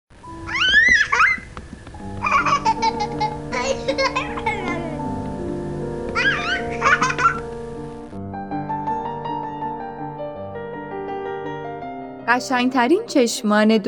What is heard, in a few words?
padkast